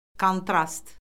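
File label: "kontrast", Azerbaijani; Orfoqrafiya Lüğəti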